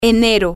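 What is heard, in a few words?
january, Spanish, female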